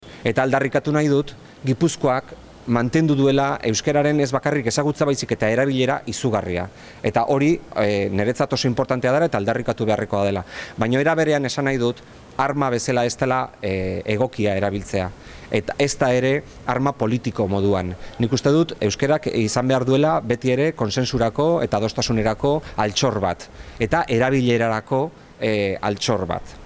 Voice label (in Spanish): Audio euskera; Denis Itxaso; sobre euskera